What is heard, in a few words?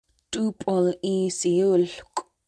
water strider, syilx okanagan; syilx okanagan partnerships